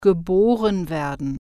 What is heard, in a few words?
"be born", german